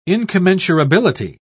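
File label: "incommensurability", British English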